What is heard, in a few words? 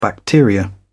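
"bacteria", British English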